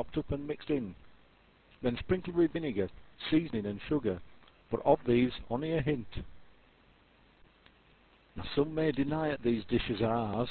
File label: yorkshire grub, Yorkshire dialect